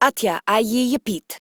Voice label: Patrol Female; en; Modular Corpus; Battle Barks